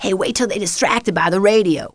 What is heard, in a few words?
distracted, female; KINGPIN